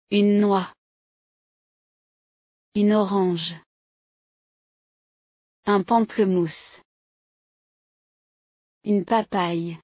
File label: Fruits french